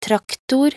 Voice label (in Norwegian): "traktor", nb_NO